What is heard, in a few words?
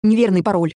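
Password Incorrect, Russian; OpenCore; EFI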